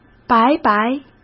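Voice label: "白白", Mandarin Chinese; chinese-flashcards; hsk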